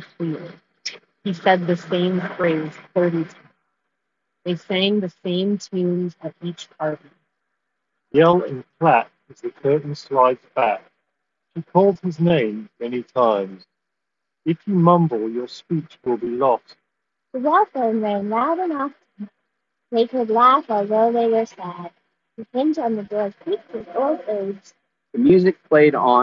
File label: Street microphone sample; Tozo T6